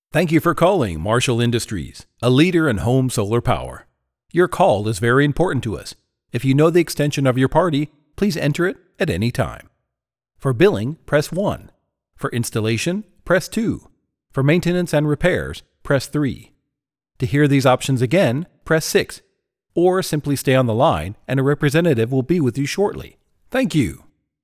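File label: English, US, male, IVR and Med. Narration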